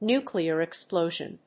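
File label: "nuclear explosion", English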